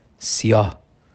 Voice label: "Noir" Farsi

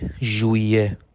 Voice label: "Janvier" Farsi

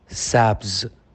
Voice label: Vert Farsi

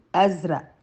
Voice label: "Bleu" Arabe

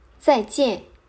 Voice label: "Au revoir" Mandarin

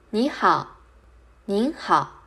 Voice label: "Bonjour" Mandarin

